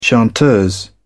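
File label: "chanteuse", British English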